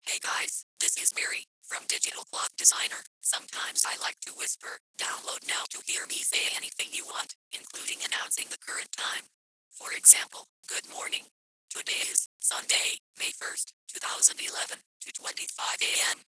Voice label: mary whisper